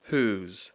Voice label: "whose", English